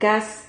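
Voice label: "cas", Spanish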